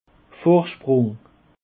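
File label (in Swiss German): "vorsprung", Alsatian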